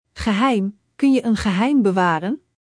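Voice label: "geheim", Dutch; Spelling Gr4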